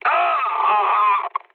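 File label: French damaged pilot mood med; War Thunder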